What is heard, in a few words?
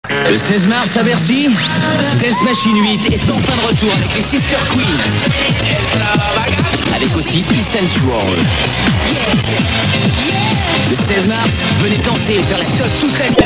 promo